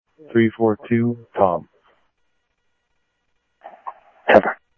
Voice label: Sheriff scanner audio

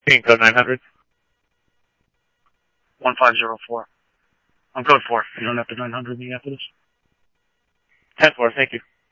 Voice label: PD Police, scanner audio; SCTSDLE PD - PD2 ScottsdalePolice; ThompsonPeakHigh

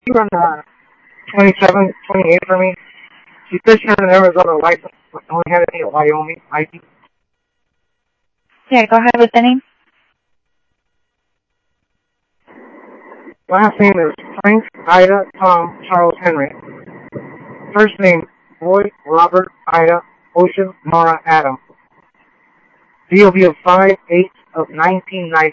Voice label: Simulcast Interop, scanner; G9 Interop

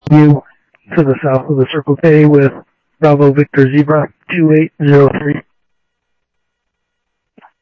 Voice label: Police, scanner; H-Scottsdale; ScottsdalePolice

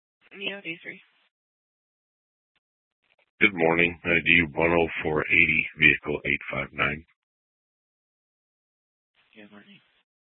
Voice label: Superstition Dispatch, Mesa PD

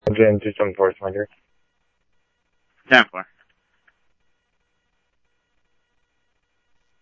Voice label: Tempe Police, scanner audio

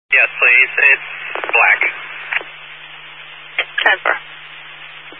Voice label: Highway Patrol, Phoenix Metro West Area, scanner

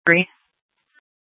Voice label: Sheriffs Office, scanner

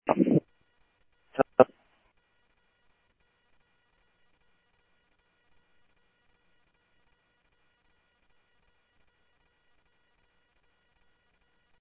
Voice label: Police, scanner audio trunk

